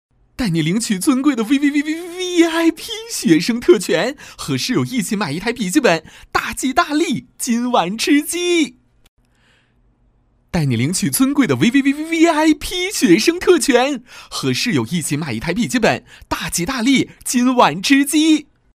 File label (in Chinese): 男 信息流广告 年轻搞怪; 今晚吃鸡; 年轻活力; 轻松活泼